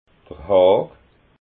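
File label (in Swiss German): "haag", Alsatian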